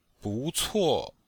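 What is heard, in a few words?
"bu cuo", Chinese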